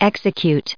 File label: "executed", us